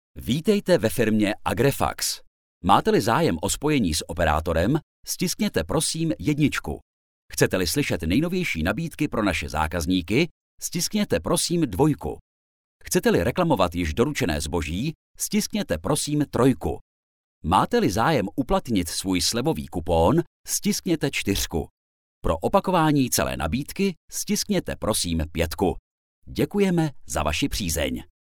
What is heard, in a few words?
male Czech